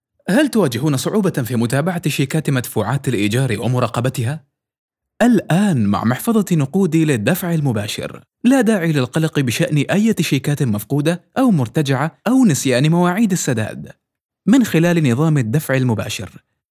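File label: Arabic, male, Standard Arabic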